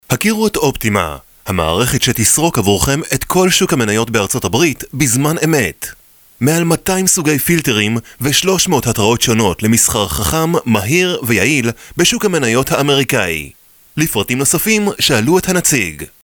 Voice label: Hebrew